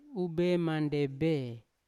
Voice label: "ube mandebe" they three, Yawa

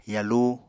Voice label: "yalu", Apatani

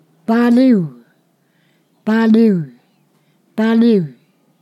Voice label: "pass", Ponosakan

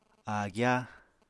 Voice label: "áagyá", Apatani

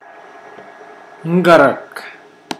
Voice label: "louse", Mokilese

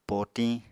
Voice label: "potiñ", Apatani

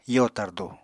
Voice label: "yo tardu", Apatani; Household Items

